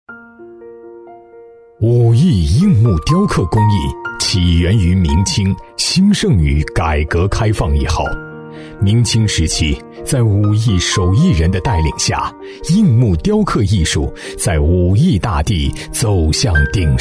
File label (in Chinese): 男 非遗宣传 低沉浑厚磁性风; 浑厚大气